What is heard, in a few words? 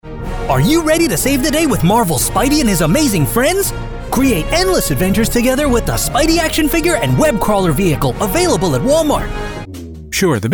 promo commercial male voiceover american